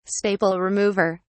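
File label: "staple remover", British English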